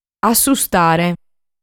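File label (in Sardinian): "Assustare", Sardinian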